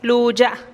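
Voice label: "lu'xa", Teotitlán del Valle Zapotec; mi lengua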